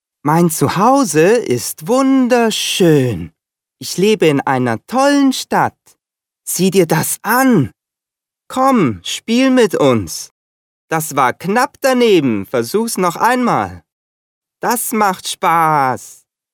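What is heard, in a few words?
German male children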